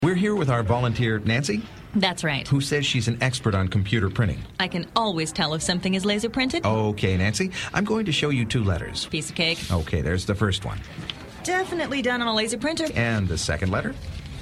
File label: Commercials